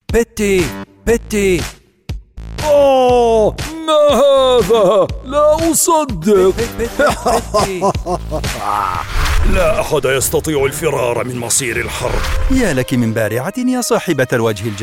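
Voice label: male, Egyptian Arabic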